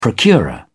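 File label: "procurer", English, British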